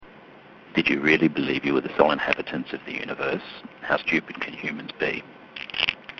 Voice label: Scratchy

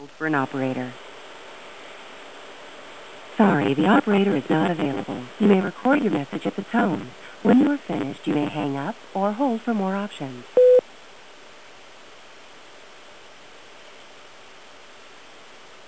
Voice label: Robotic audio; cat6k; voice-unified-communications; unity

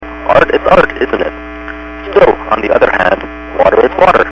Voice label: Underwater

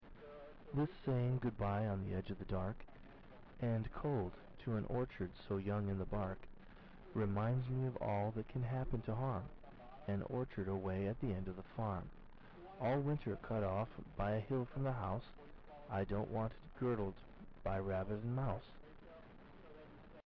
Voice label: Soft

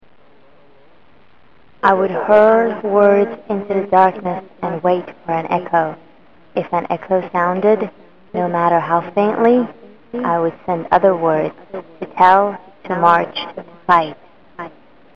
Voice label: Echo far end

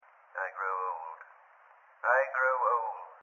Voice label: Tinny

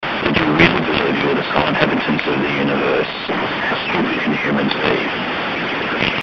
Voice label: Scratchy

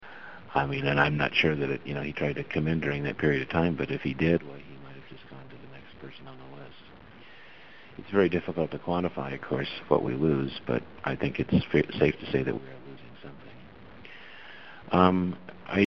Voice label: Fluctuating

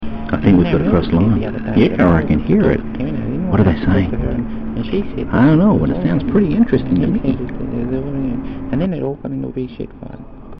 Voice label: Crosstalk

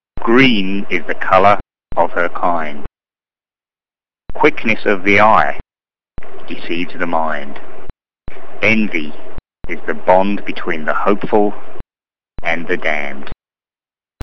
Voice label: Clicking

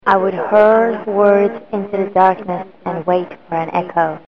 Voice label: Echo far end

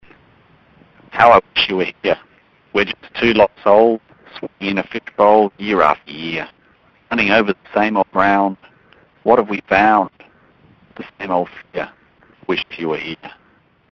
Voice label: Choppy

